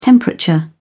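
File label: "temperature", British English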